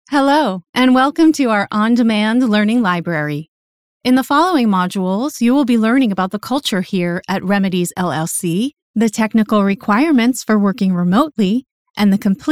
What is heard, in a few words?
Voice Elearning